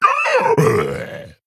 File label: Male Quilboar Death